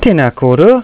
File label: teenaa korua, maori